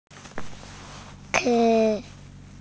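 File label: "chi1", Chinese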